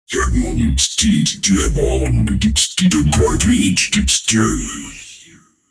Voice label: prepare for the ultimate, announcement

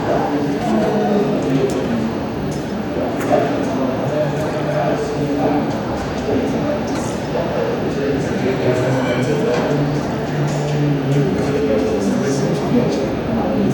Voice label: quiet chitter chatter of

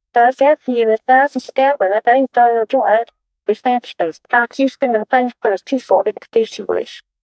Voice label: voice message to lecturer, apology

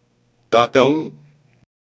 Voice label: woman saying yes, affirmative

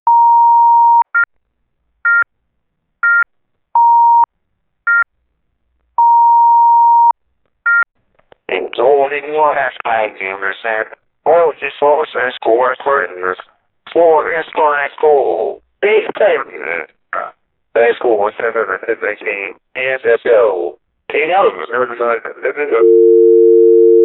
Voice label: news broadcaster says; broadcasting

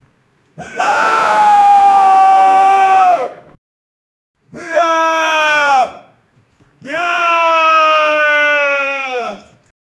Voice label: man angrily shouting; male

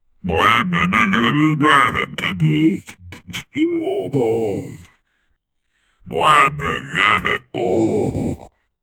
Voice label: villain says i am invinci

